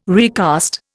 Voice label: "recast", Indian

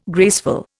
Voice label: "graceful", Indian

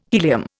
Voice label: "helium", Indian

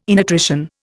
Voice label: "innutrition", Indian

